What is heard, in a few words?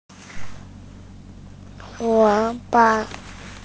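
"huo3 ban4", Mandarin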